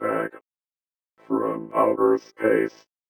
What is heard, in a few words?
VOICEFX ELCTR 140; Techno2